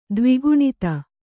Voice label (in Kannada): "ದ್ವಿಗುಣಿತ", Kannada